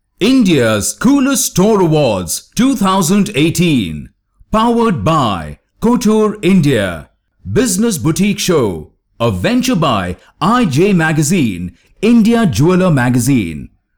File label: Awards show